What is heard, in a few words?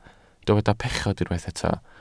"pechod", Welsh